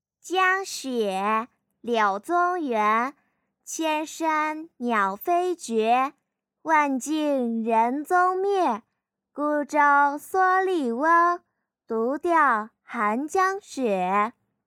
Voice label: 萝莉音

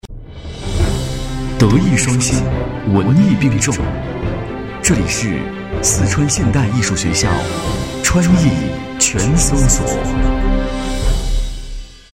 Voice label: 片头, 栏目包装 普通话 青年（18-35） 男

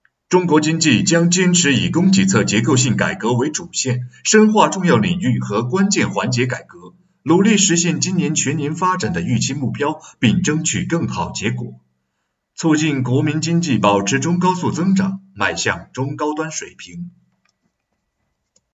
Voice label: 新闻类

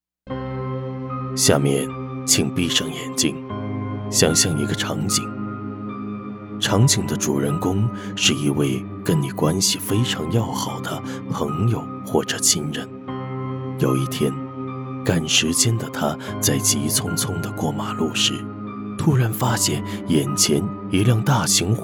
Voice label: 心理引导词低沉缓慢

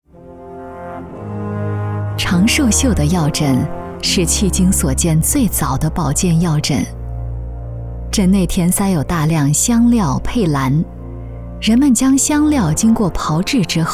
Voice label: 古代历史解说

